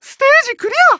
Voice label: Emo Praise